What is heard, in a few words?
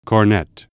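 "cornet" word; Musical Instruments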